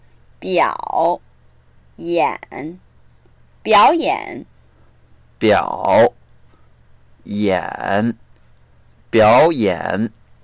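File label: "biao3 yan3", Mandarin Chinese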